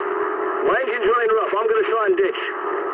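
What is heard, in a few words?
English damaged engine mood medium; speech; War Thunder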